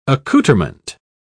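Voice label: "accoutrement", British English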